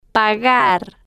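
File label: "pagar", spanish, slow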